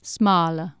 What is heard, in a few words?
"smale", Anglo Saxon